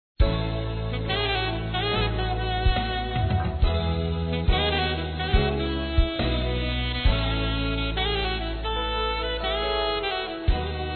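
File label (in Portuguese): AO DOENTE, feminino; Telemensagens; Telemensagens 2017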